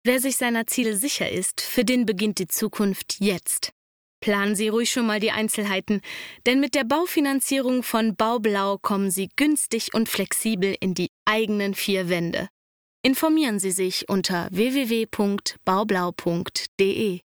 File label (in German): sprecherdemo werbung; baublau